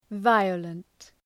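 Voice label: "violent", English word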